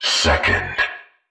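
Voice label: "second", male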